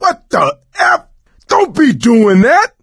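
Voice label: team kill accident, voice, male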